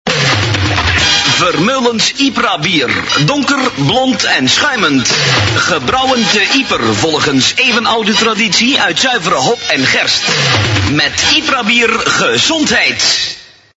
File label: commercial